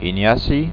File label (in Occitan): "inhaci", occitans